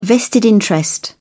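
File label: "vested interest", British English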